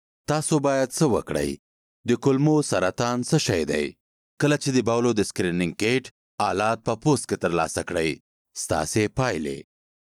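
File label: Pashto for Afghanistan, male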